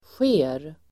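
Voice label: "sker", Swedish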